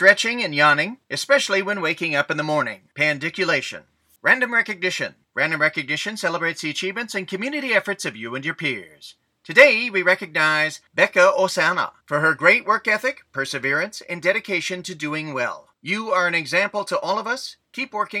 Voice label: Announcements